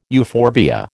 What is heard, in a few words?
"euphorbia", American